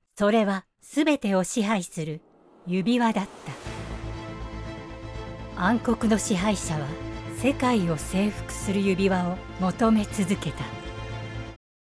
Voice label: Japanese movie trailers